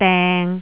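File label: "deng3", Cantonese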